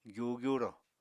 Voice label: "gyu-gyuro", Apatani